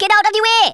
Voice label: take cover, Rasta